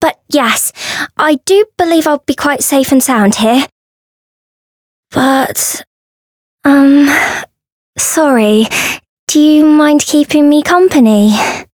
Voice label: Voice story; orleya

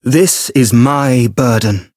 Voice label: Voice chant; clarte

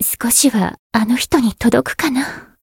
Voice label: Voice jp

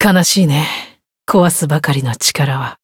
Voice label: encount fear, jp; clarte

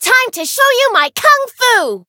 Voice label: chant; lingli

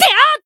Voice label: attack, jp

